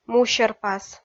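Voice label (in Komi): "мусерпас", Komi, female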